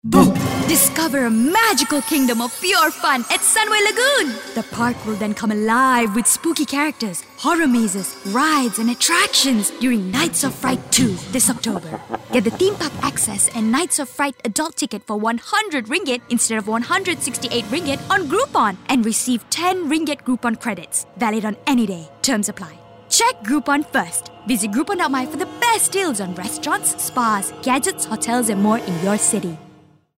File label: ENG; GROUPON; SUNWAY LAGOON; NIGHT OF FRIGHT